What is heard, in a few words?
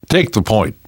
lead on, survivor voice namvet